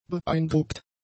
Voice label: "beeindruckt", German; adjectives